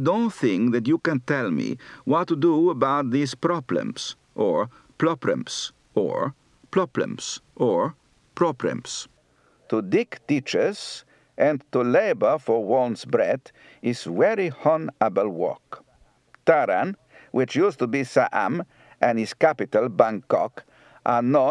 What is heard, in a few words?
Thailand, Accent